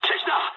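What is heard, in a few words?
Japanese enemy detected mood low; War Thunder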